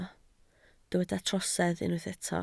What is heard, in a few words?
"trosedd", Welsh